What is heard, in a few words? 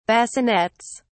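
"bassinets", British English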